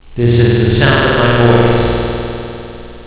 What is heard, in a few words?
voice Echo